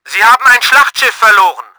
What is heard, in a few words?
BATTLESHIP DESTROYED, german; Battle Engine Aquila